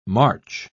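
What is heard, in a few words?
"march", English, British English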